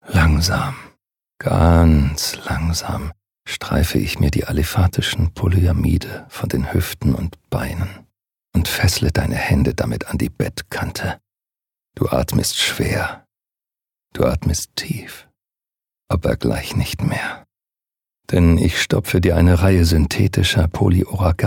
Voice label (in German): DE Psychopath ruhiger wahnsinn